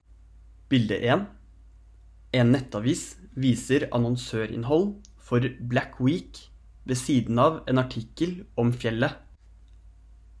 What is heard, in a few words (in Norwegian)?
Reklame